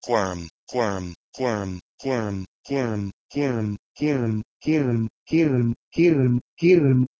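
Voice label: Proto-Indo-European kwrm to Urdu kirm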